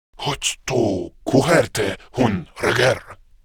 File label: Patrol, Male; Warframe; Grineer Male; Battle Barks